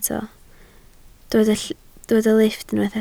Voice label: "lifft", Welsh